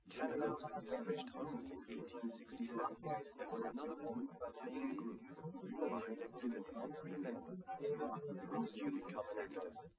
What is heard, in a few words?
male liverec 130ms 5cm; SiSEC08; SiSEC underdetermined